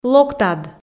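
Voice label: "локтад", Komi, female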